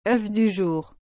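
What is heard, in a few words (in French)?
"œuf du jour", French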